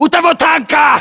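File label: landmark near tank, battlechatter, Russian; localized english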